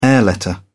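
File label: "air letter", British English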